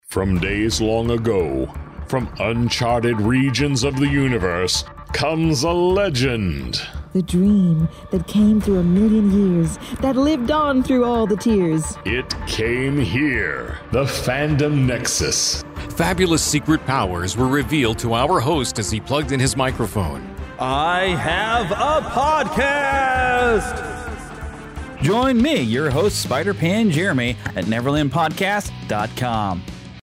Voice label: Promo; promo 2022